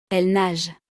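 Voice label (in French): "elles nagent", French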